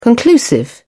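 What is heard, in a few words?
"conclusive", British English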